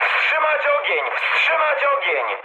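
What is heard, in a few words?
player friendly fire, mood med, Polish speech; War Thunder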